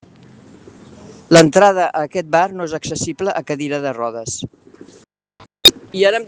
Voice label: Voice message